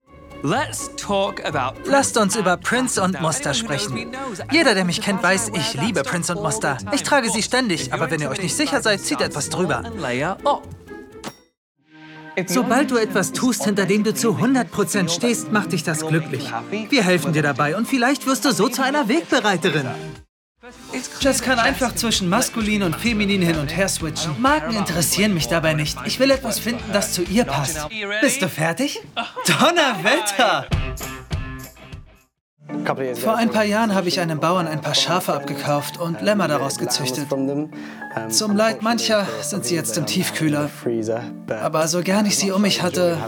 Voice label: Voice-Over; Queer Eye (Tan France) / Big Family Cooking / Battlefish